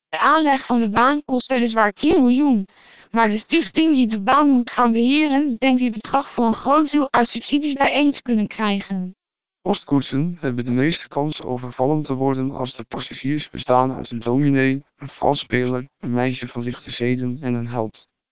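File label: SPR 1200 short, Dutch speech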